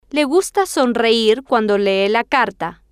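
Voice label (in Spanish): le gusta sonreir cuando lee la carta, Spanish, female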